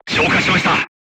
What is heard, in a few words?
voice message fire extinguished, crew tanks japanese